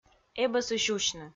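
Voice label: "эбӧсысь усьны", Komi, female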